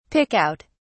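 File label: "pick out", British English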